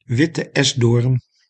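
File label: "witte esdoorn", Dutch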